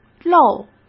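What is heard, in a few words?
"露", Mandarin Chinese; hsk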